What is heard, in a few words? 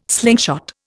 "slingshot", Indian